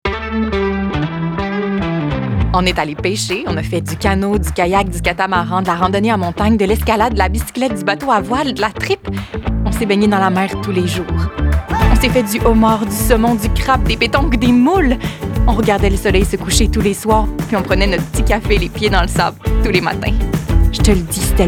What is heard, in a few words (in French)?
annonceur fr qc naturel amical avec sourire energique medium adulte; gaspesie